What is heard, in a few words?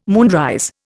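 "moonrise", Indian English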